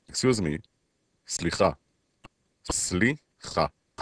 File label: Hebrew Excuse